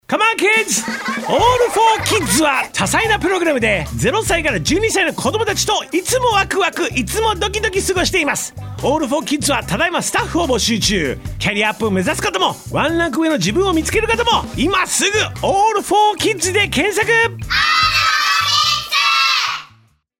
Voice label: Radio CM; ALL 4 KIDS